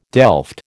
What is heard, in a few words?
"delft", American